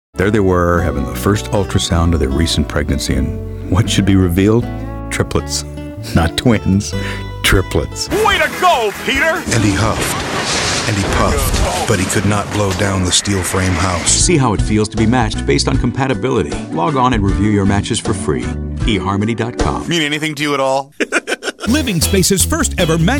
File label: men commercial; Conversational, gravely, honest, mid-range to deep.